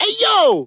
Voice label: "ay yo" british; Voice

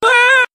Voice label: "wrr", voice; ooh ahh

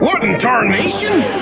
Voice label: "what in tarnation", expletives, g-rated swears; Voice

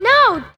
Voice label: "no" girl; Voice; expletives

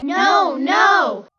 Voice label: "no no", kids; expletives

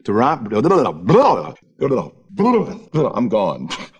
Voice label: tarapta bluh bluh i'm gone, Voice gibbrish

